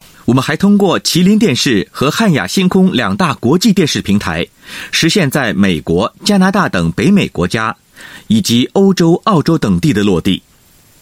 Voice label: Mandarin voice sample, China male

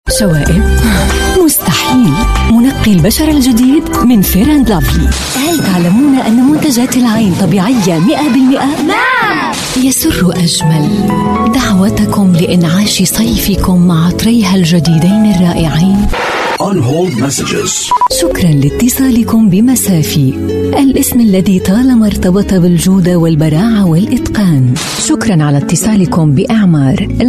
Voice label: Arabic F, Arabic Female

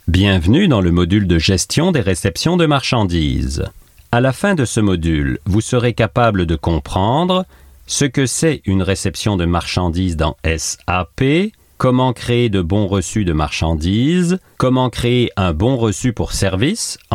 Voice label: French Canadian elearning

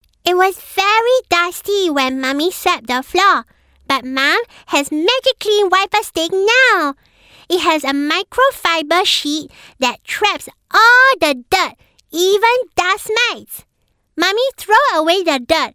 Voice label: Asian English Female Kid

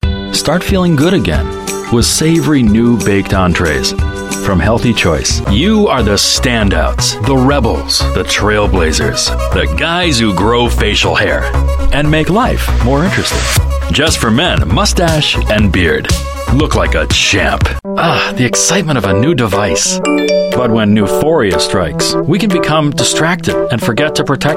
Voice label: US English Commercials

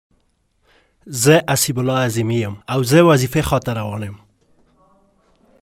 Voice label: Pashto Male

